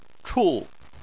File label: "chu4", chinese